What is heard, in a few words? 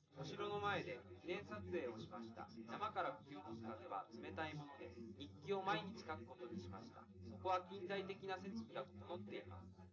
male, live rec